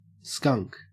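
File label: "skunk" English, Australian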